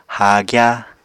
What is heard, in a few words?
"haagya", Apatani